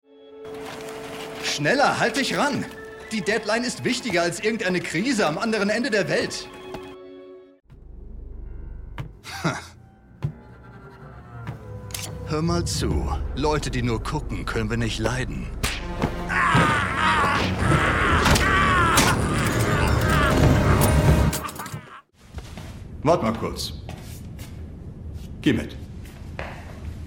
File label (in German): Synchron